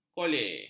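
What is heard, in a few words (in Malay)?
"kole", Malay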